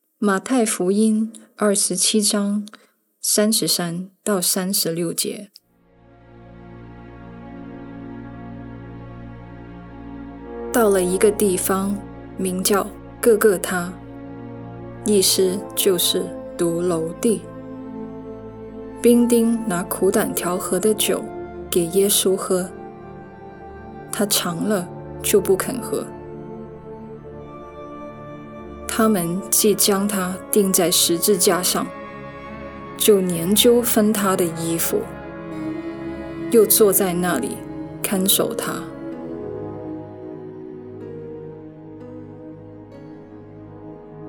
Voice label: Voice Over Chinese; Journey to the Cross